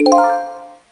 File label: speak male